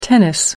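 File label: "tennis", British English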